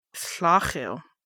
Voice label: "night", Lushootseed

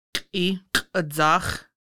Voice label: small intestine, Lushootseed

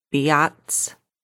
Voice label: meat, Lushootseed

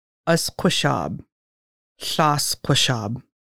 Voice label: foggy, Lushootseed

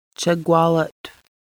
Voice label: in front of the house, Lushootseed

